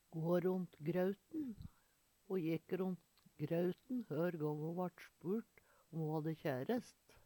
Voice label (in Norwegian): ga ront, Numedalsmål; gå ront grøuten; Ho jekk ront grøuten hør gång ho vart spurt om ho hadde kjærest.